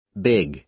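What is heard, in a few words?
"big", American English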